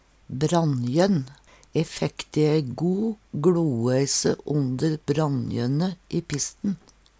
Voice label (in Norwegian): brannjonn, Numedalsmål